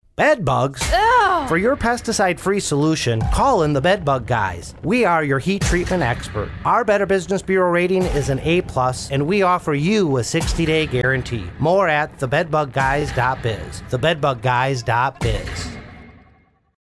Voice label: Radio ad